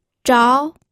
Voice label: "zhao2", pinyin